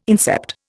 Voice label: "incept", Indian

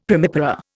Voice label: "primipara", Indian; Dictionary

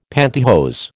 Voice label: "pantihose", American

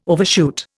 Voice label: "overshoot", Indian

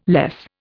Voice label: "less", Indian

